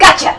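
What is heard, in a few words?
female "gotcha"; lmctf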